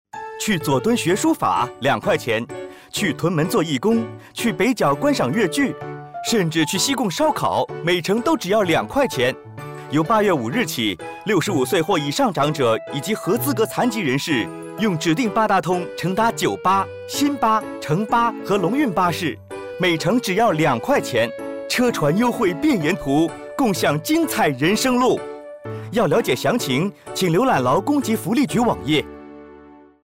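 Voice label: 2 dollars Mandarin Radio; 政府長者及合資格殘疾人士公共交通票價優惠計劃 (二元優惠計劃); fare concession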